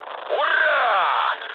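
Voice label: player killed enemy, mood low, Russian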